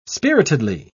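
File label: "spiritedly", British English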